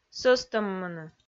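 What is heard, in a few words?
"сӧстӧммыны", Komi, female; audio dict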